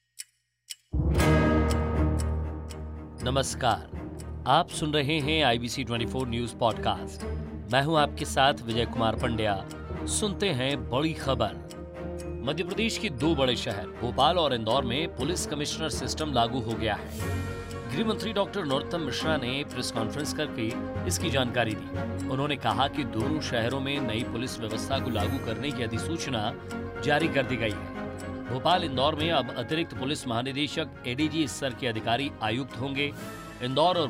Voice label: RADIO NEWS